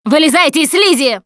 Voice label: gooed by spitter, russian; Producer